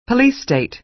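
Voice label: "police state", American English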